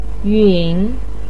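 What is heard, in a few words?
"yun3" Chinese syllable, pinyin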